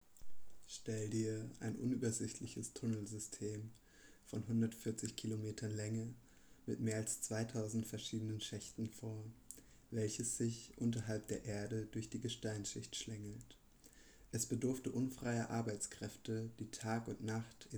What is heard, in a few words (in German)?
Hörspiel; Vom Silber zur Münze